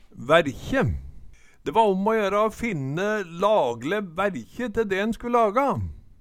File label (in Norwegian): værkje, Numedalsmål